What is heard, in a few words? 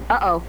UH OH